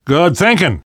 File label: generic responses, survivor voice; Left 4 Dead 2; left4dead2 dlc3